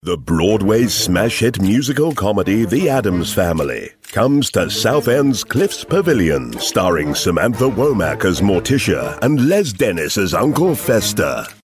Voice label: spooky